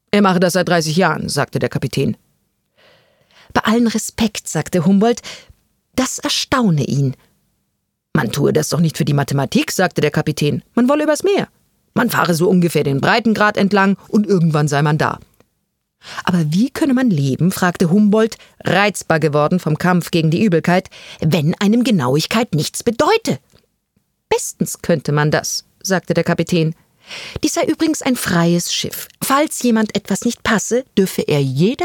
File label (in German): Hoerspiel